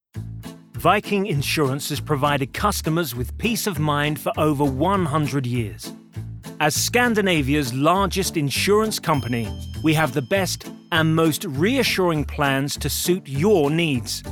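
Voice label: British English advert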